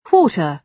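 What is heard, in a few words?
"quarter", British English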